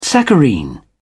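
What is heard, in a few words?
"saccharine", English, British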